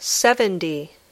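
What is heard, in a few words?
"seventy", English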